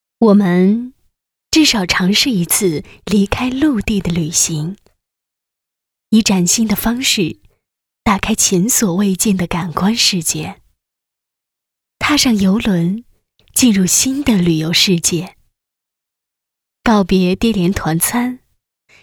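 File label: chinese mandarin female narration; voice demos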